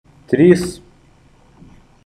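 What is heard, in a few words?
"Three", Lithuanian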